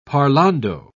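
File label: "parlando", British English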